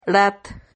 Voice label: "rat", Silabas

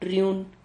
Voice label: "rriun", Silabas

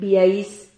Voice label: "viais", Silabas

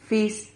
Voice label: "fis", Silabas

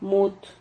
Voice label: "mut", Silabas

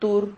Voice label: "tur", Silabas

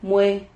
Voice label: "mue", Silabas

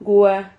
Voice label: "gua", Silabas

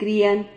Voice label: "crian", Silabas

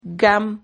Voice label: "gam", Silabas

